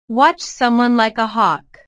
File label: "watch hawk", inglés